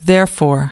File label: "therefore", US